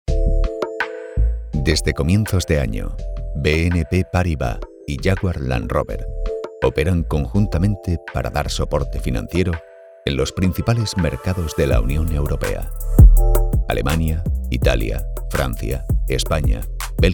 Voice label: Corporate, deep, trustworthy, confident Male Voice; Publicidad; Bnp Paribas